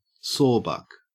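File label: "sawbuck", Australian English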